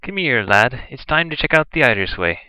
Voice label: Irish Scan Card